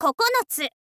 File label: girl